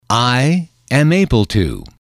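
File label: i am able to can, English, male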